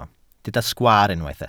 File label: "sgwaar", Welsh